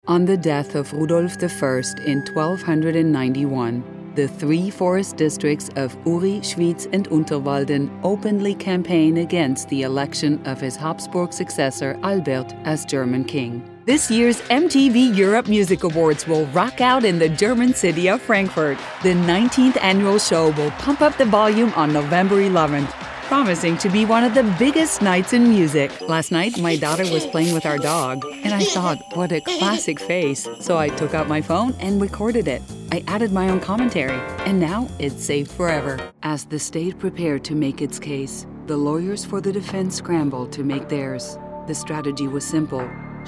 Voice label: Narration